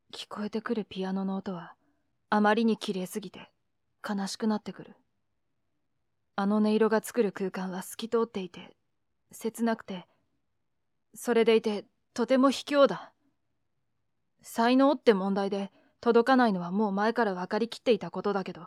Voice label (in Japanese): とりあえず低めに, モノローグ風; 同じ文章で３パターン